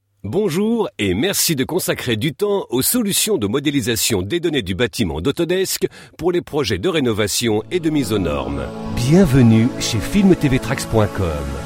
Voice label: French training e-learning